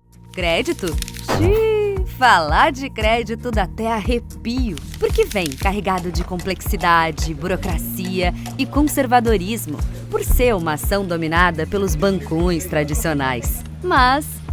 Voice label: LOCUÇÃO

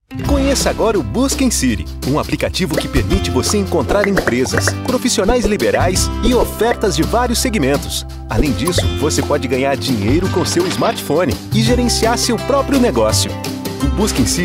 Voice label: Coloquial

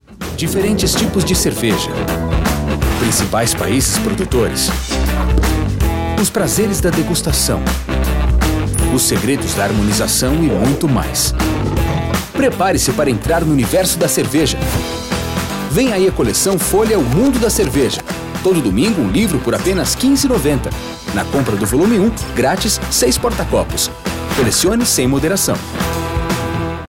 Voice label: LOC COMERCIAL

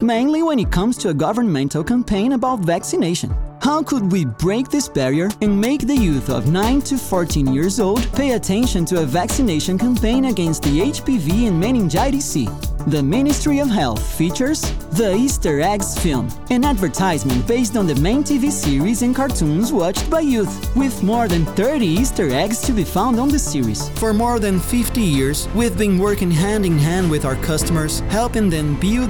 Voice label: Portfólio Inglês

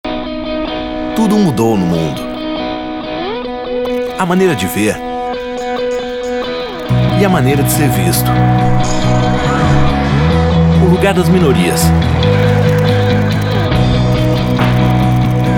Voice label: Institucional; CorreioDaBahia; Mudanças

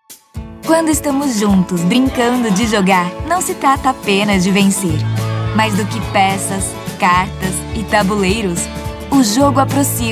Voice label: Emotiva

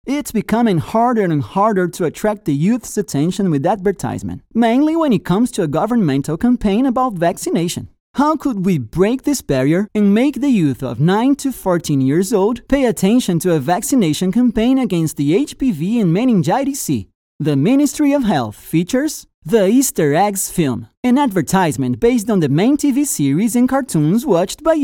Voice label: Locução Inglês, Ministério da Saúde

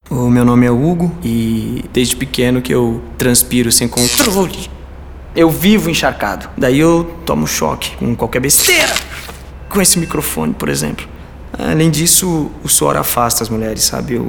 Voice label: Ator Spot Seco

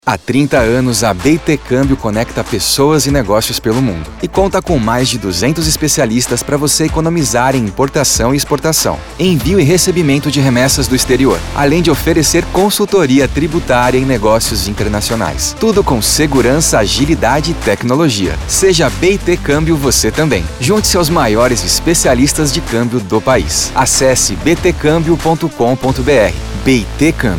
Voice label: Cambio Conversado Sofiticado Didatico; BT

